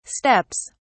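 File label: "steps", British English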